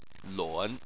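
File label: "lun", Chinese, third tone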